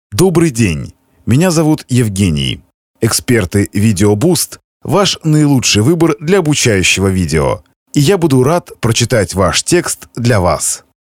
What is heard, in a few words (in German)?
Russisch; internationale voiceover